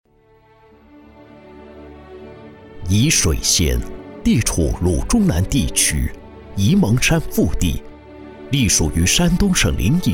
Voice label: 男 医院 恢弘大气

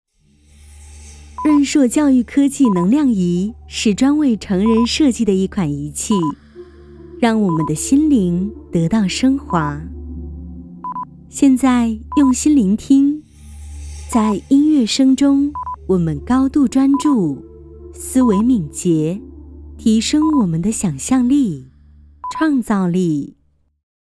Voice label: 女 专题类

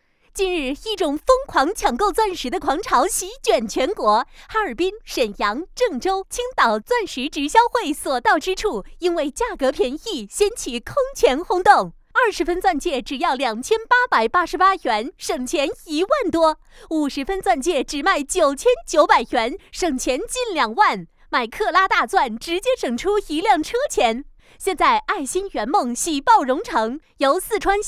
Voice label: 钻石直销会活动配音

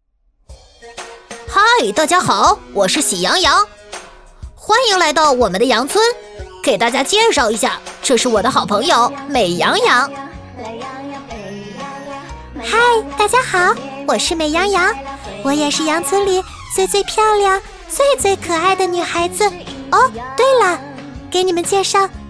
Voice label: 女 模仿; 喜羊羊与灰太狼角色

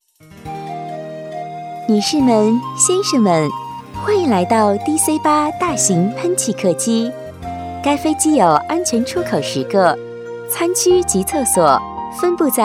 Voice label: 女,语音机场类中英文,年轻甜美温柔

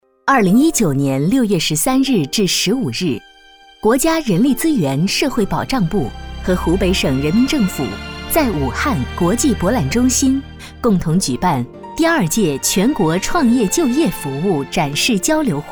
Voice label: 女 专题; 青年创业就业

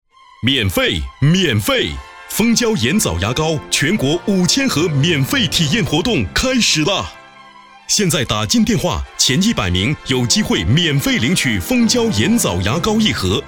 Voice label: 男 促销广告

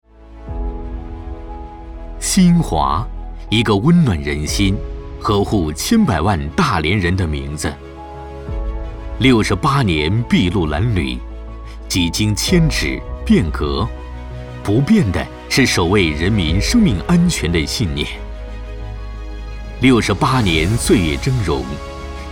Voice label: 男 宣传汇报; 新华医院

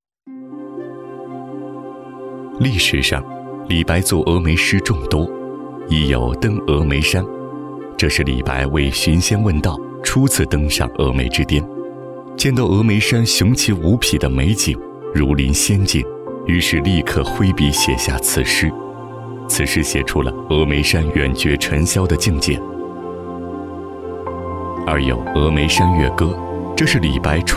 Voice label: 男 纪录片 娓娓道来 讲述历史

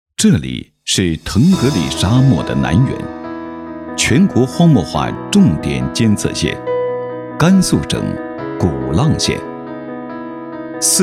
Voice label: 男 宣传片 城市介绍; 甘肃古浪

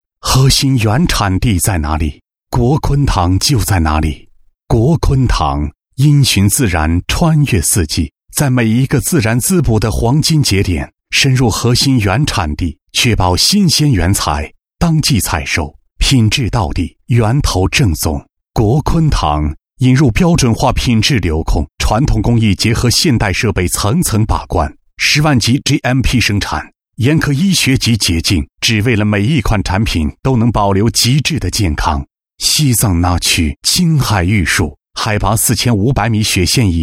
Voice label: 男 广告; 国坤堂

